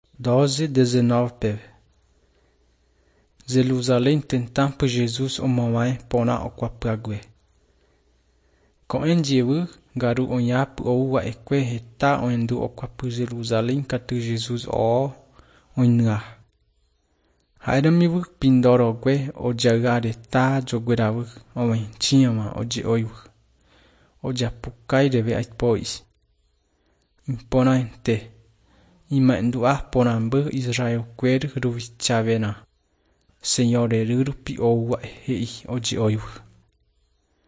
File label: john guarani mbya, bible